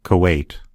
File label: "kuwait", en US; gcompris; geography